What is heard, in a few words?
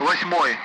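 Speech, Russian, 8th; Allied Flight; DCS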